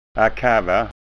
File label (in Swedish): "akava", skanska ord